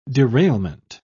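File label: "derailment", English, British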